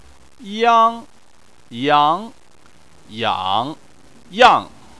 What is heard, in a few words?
"yang", chinese